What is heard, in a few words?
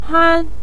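"han", Mandarin Chinese, neutral tone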